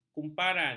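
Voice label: "kumparan", Malay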